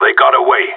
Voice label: enemy lost, radio; GSG9